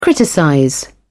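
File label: "criticize", British English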